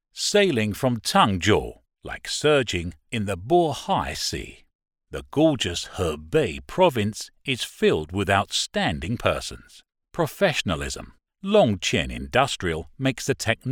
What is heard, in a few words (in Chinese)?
宣传片解说词 EN; 龙泉实业